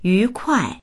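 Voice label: "yu2kuai4", Mandarin Chinese; 愉快; 愉快である、楽しい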